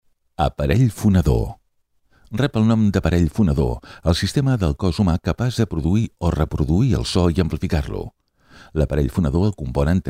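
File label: e-learning, Catalan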